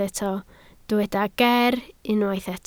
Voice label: "ger", Welsh